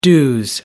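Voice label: "dues", British English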